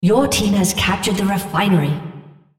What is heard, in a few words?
female Refinery; Odin